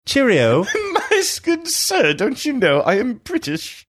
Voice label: saying cheerio my good sir dont you know i am british in british accent